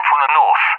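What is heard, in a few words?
"from the north", English, UK; DCS; Allied Flight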